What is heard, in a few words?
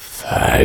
death, voiceover reversed